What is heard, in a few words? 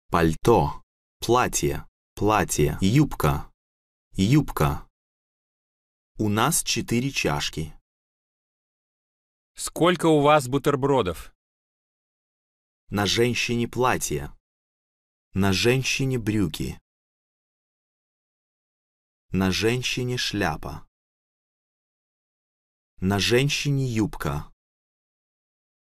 Russian Voice Over; Rosetta Stone VO